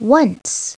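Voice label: "once", English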